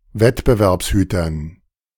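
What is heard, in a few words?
"Wettbewerbshütern", German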